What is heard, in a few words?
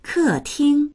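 "ke4ting1", Chinese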